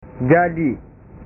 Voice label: "jadi", bahasa

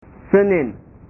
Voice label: "senin", bahasa

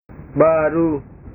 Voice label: "baru", bahasa

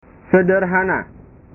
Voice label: "sederhana", bahasa

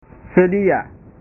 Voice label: "sedia", bahasa

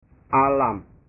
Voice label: "alam", bahasa

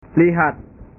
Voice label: "lihat", bahasa

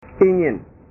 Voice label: "ingin", bahasa

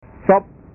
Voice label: "sop", bahasa

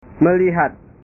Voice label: "melihat", bahasa

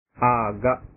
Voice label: "agak", bahasa

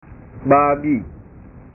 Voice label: "babi", bahasa

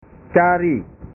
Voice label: "cari", bahasa

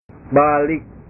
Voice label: "balik", bahasa

